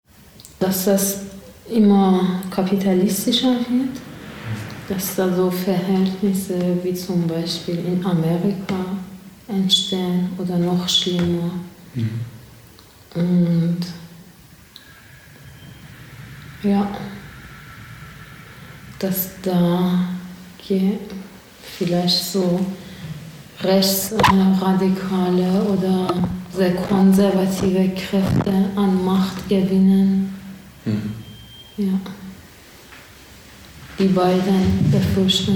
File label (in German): persisch